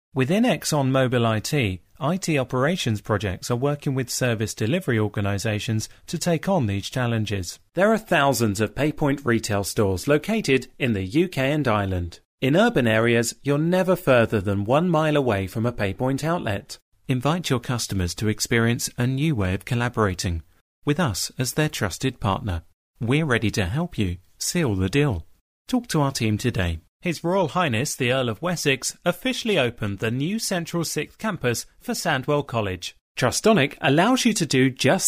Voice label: English M Showreel